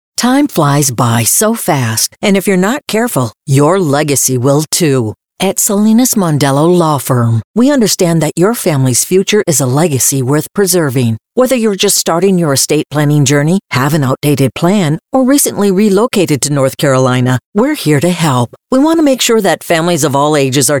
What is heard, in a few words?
ENG